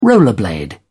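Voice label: "rollerblade", English, British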